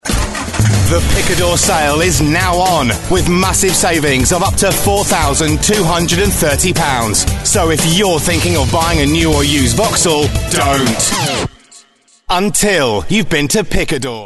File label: hard sell